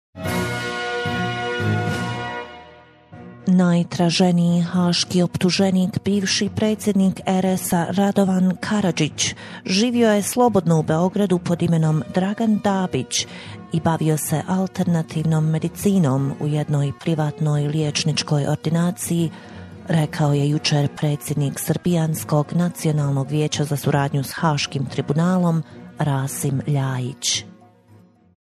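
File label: Bosnian female Documentary; voice over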